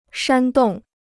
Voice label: "煽动", Chinese

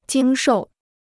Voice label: "经受", Chinese

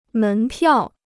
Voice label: "门票", Chinese

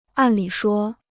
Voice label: "按理说", Chinese

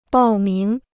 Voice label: "报名", Chinese

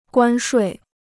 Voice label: "关税", Chinese

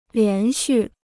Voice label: "连续", Chinese

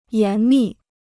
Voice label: "严密", Chinese

